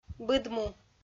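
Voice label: "быдму" Komi, female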